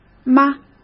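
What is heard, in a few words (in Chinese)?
"吗", Mandarin Chinese; hsk; chinese flashcards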